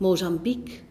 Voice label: mozambique, nl; gcompris-data; geography